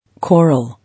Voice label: "Coral", English